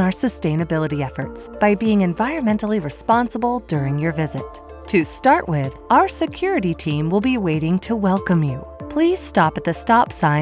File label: Narration Safety Video; Emerson